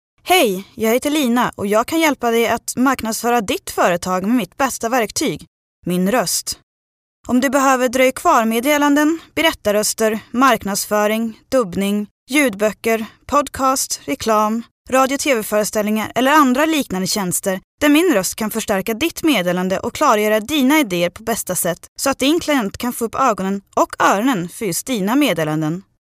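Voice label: Swedish, voice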